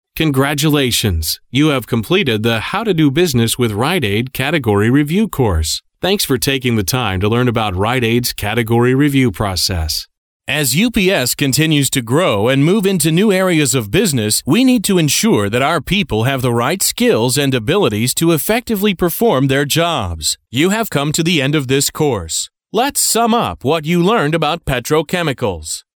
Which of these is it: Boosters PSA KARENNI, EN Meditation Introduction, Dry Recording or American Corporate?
American Corporate